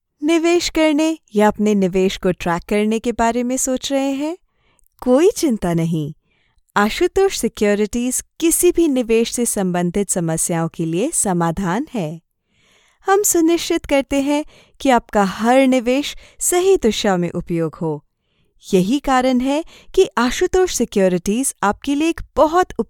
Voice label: hindi commercial; professional, multi-lingual voice artist